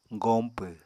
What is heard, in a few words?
"goñpw", Apatani